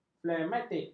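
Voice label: "flegmatik", Malay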